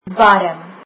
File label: "warm", afrikaans; adjectives